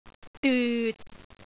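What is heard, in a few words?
"dyut6", Cantonese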